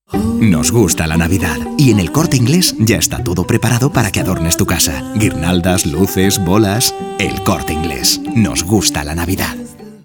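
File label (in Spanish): Cuña Navidad Adornos; ECI; Flash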